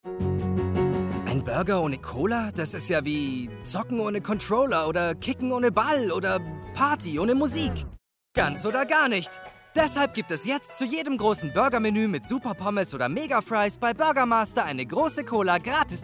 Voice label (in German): Sprachprobe Burgermaster DE